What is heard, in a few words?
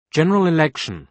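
"general election", British English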